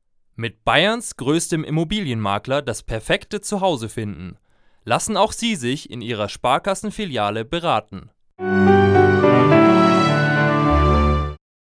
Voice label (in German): Werbung Reminder; Sparkasse